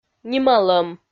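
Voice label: "нималӧм", Komi, female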